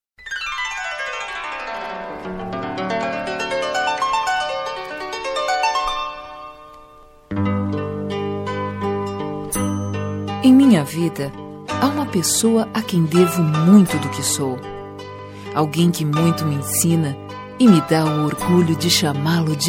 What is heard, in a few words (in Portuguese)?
Feliz Natal pra Pai, F